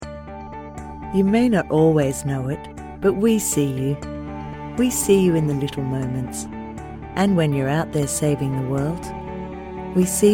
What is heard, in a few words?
Commercial Advertising Natural Health